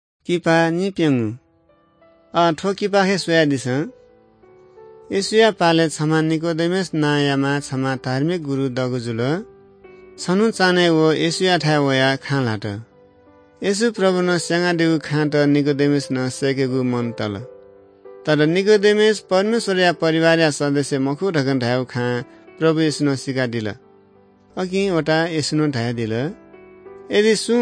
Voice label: good news newari bhaktapur

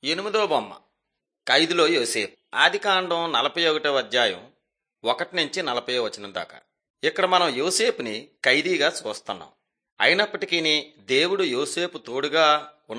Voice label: telugu bangladesh; mighty men of god

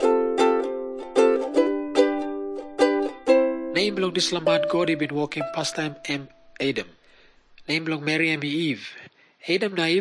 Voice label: tok pisin; png nt drama; can god forgive me

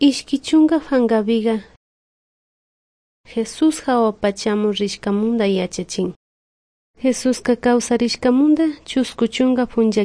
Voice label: good news quichua de salasaca; quechua; bible

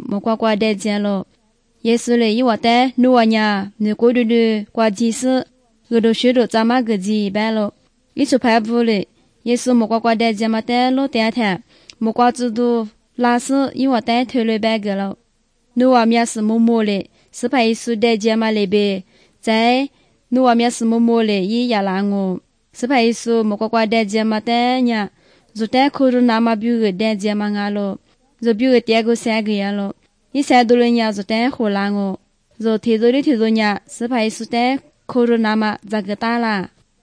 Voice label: good news lisu southern, drama

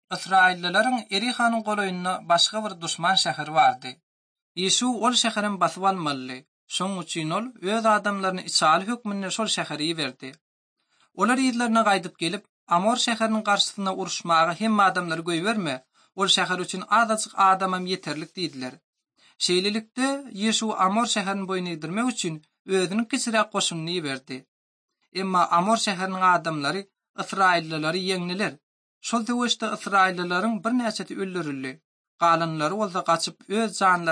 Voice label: turkmen, ot drama

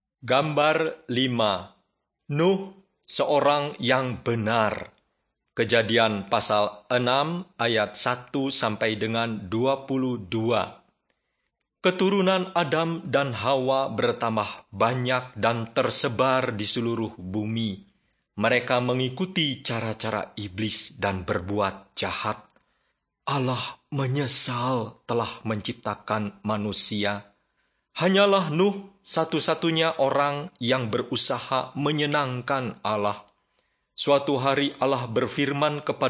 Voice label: bahasa indonesia; beginning with god

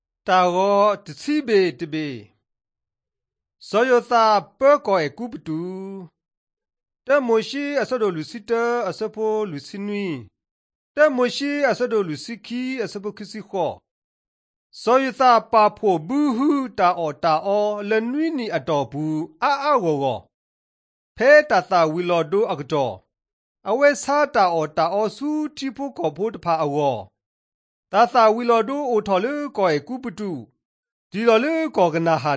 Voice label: drama, karen sgaw; mighty men of god; bible

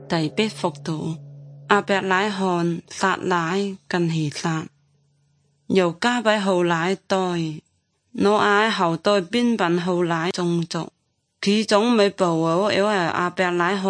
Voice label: good news zhuang guigang guiping

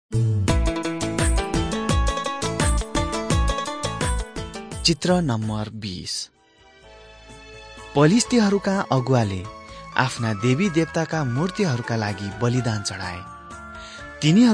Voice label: victory through god nepali

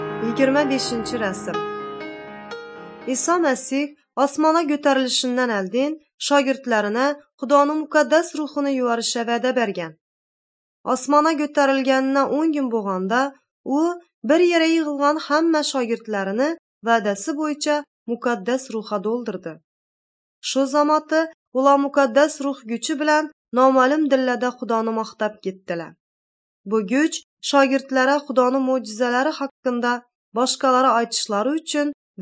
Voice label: uzbek northern kipchak; good news

